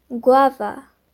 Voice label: "Guava", English

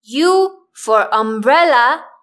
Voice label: u umbrella, English; grade1; identifying letters third

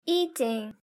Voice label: "eating", english; grade3; fun with sentence

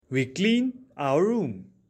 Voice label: clean, en; grade1; math; time

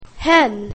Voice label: "hen", English; grade1; basic sounds 2

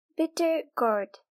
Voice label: Bittergourd, girl

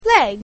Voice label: "leg", english; grade1; basic sounds 1

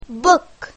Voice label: "book", English; grade1; basic sounds 1

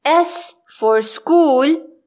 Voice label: school, english; grade1; identifying letters

